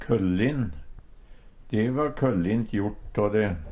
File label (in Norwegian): køllin, Numedalsmål; Adjektiv